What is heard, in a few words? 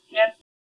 Voice Acting es